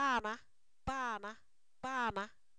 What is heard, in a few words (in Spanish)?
"dónde", Zapoteco; preguntas